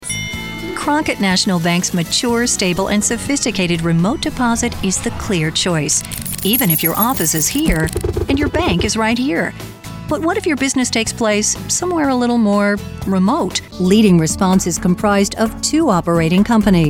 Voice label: NARRATION